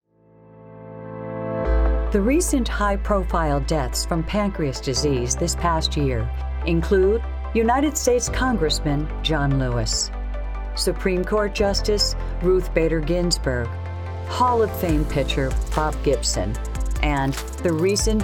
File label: voiceover music